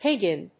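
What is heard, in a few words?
"piggin", English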